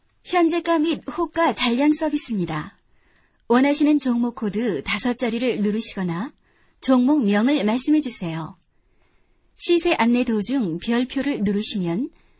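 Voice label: Korean, female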